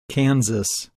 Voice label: "Kansas", English Pronunciation

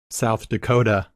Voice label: "South Dakota", English Pronunciation

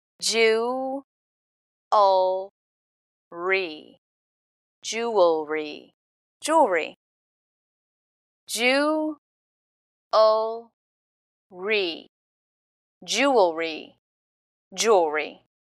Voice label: Jewellery American pronunciation

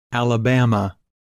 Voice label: "Alabama", English Pronunciation

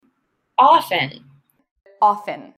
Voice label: Ofen pronunciation

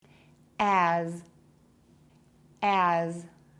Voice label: pronounce, As Amer

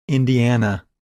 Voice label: "Indiana", English pronunciation

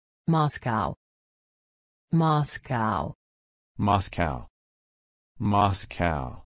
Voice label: Moscow pronunciation

